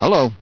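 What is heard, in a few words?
hello nice suit, male; Barney; hl2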